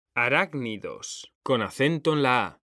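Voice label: "arácnidos", Spanish, male; mekanta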